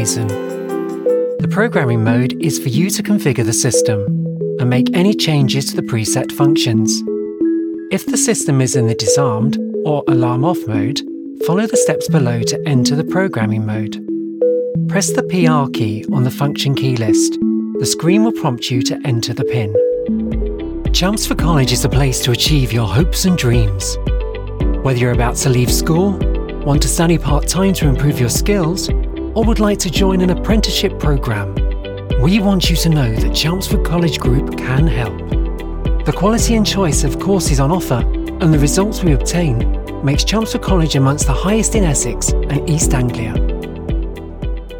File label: corporate